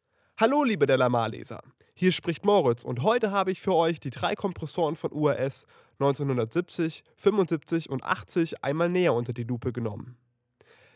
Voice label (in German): sprache trocken